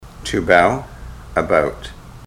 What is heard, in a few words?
to bow about, ontario